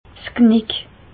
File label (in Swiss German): "'s Knick", Bas Rhin; medecine; nuque